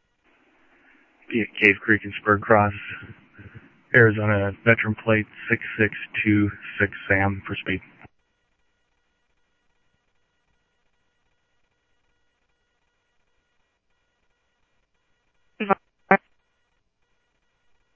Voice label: scanner audio trunk